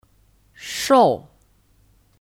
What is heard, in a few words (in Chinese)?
"瘦", Shòu; (Kata sifat): Kurus